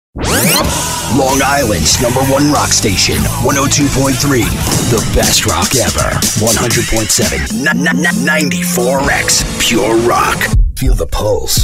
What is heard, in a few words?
Radio lmaging